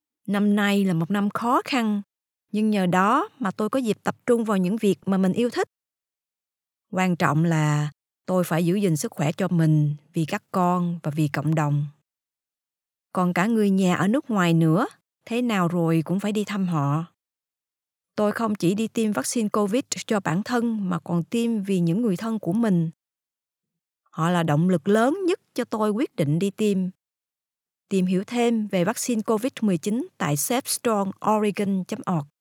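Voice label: Vietnamese, female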